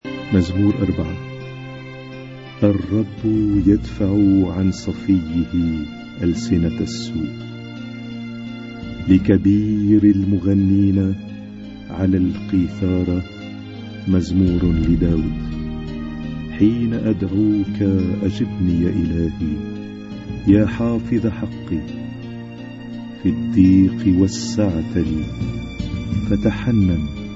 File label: Arabic Psalm Zaboor